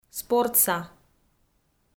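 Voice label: "спортса", Komi, female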